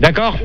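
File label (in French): "D'accord", French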